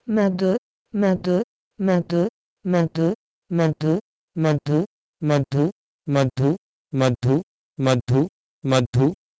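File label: Proto-Indo-European medhu to Sanskrit madhu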